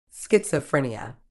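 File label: "schizophrenia", British English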